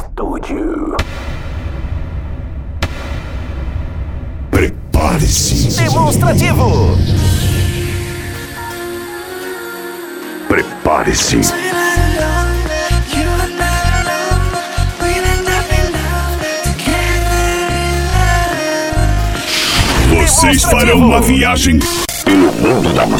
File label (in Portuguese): abertura para dj voz